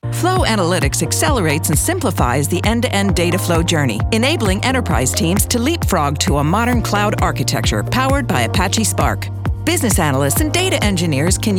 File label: Narration